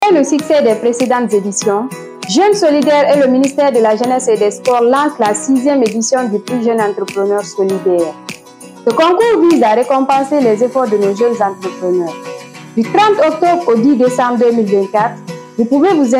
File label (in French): Vocal spot